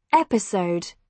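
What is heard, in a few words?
"episode", British English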